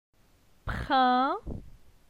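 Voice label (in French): "Prin", French; phonetique; la syllabe in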